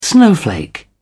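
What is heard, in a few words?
"snowflake", British English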